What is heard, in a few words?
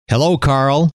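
"hello", male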